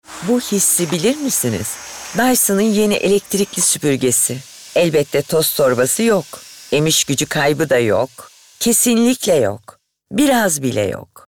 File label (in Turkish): Komik